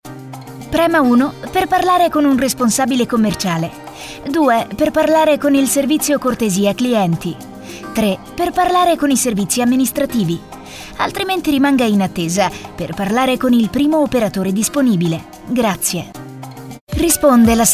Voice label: mix segretria e IVR

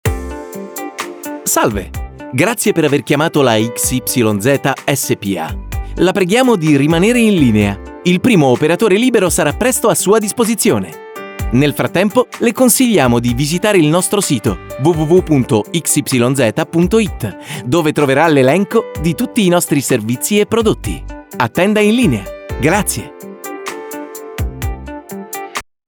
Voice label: voce reale per segreterie e IVR Roma

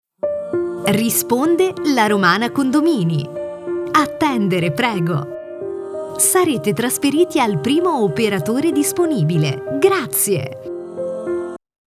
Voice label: Segreteria telefonica IVR

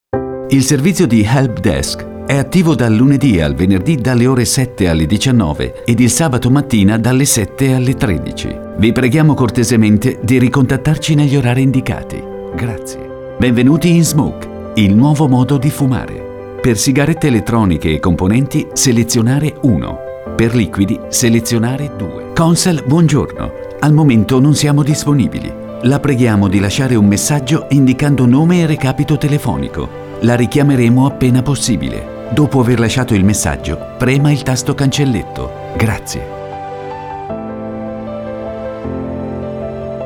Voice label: IVR Segreteria Telefonica con base

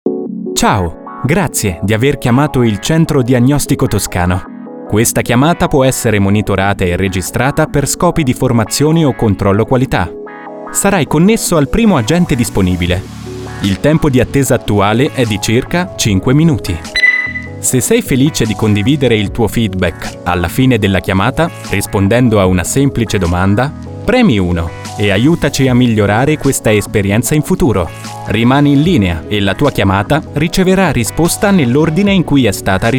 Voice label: voce professionale segreteria telefonica e IVR

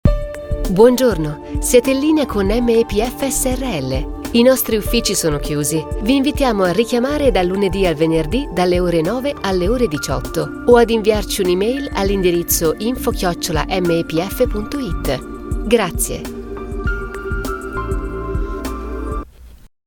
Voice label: SEGRETERIA TELEFONICA IVR base

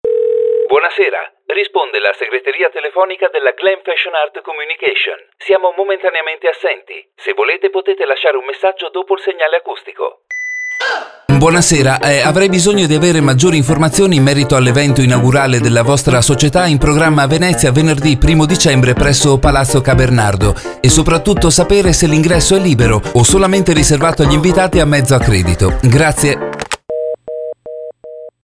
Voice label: segreteria; Glamfashionart

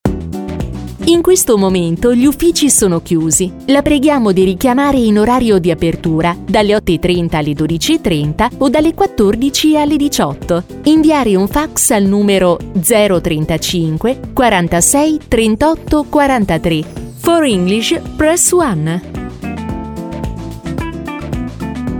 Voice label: Voce reale per messaggi telefonici e IVR

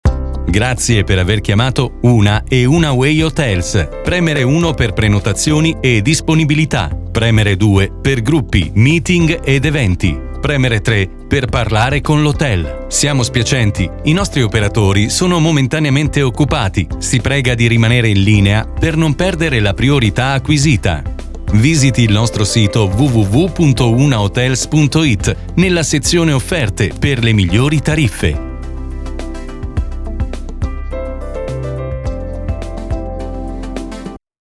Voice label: voce maschile segreteria telefonica

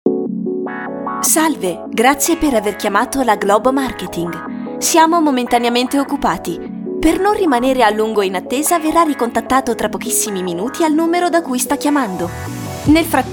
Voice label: voce segreteria telefonica

